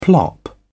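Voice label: "plop", British English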